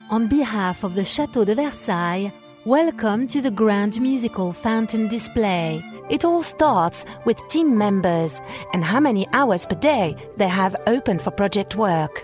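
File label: French, English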